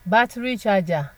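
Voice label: "battery charger", Nigerian Pidgin, female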